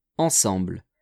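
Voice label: "ensemble", French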